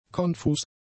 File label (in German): "konfus", German; adjectives